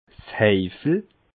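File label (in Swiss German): "seifel", Bas Rhin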